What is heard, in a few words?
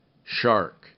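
"shark", English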